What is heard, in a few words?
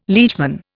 "liegeman", Indian